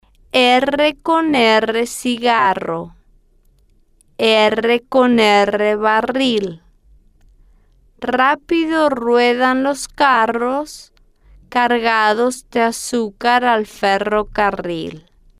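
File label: erre Con Erre Cigarro Erre Con Erre Barril, Spanish, female, slow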